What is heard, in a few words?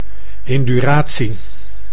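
"induratie", Dutch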